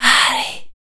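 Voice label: vocal female, Dance Vocals, spoken words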